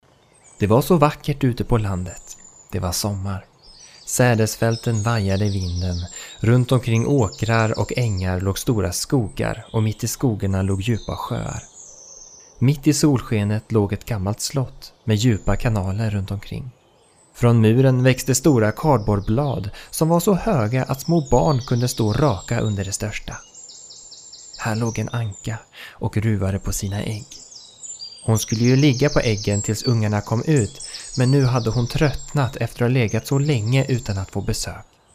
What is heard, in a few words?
Swedish male